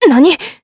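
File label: Vox Sur Japanese; Tanya; kings raid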